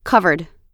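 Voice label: "covered", US English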